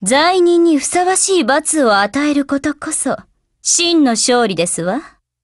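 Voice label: WIN Japanese, Voice; GF Alarm; FP6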